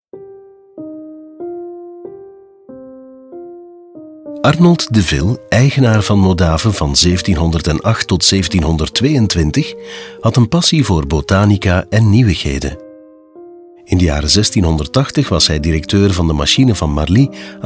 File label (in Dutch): audioguide nl adulte; jardin; modave